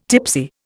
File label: "tipsy", Indian